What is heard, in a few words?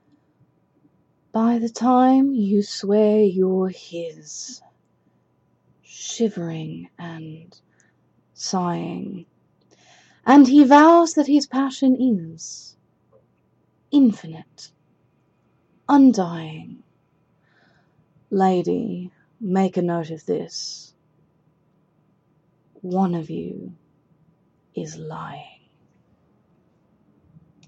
poetry; Unfortunate Coincidence; yay no coughing!